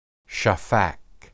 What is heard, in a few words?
pour, hebrew